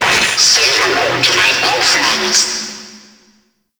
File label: Friendly Hack Vocal; Entrati; Necramech; NechramechHack